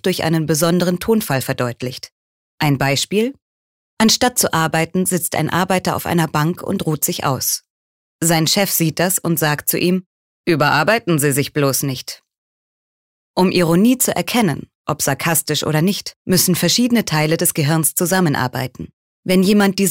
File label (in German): Sarkasmus Neutral